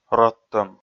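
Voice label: "рӧдтӧм", Komi, male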